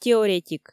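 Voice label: "теоретик", Komi, female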